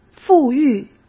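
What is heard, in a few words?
"富裕", Mandarin Chinese; hsk; chinese-flashcards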